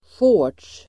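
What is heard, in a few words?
"shorts", Swedish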